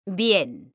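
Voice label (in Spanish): "BIEN" Spanish, female; mekanta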